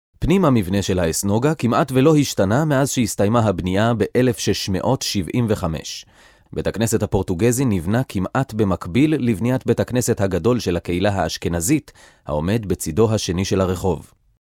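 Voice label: Hebrew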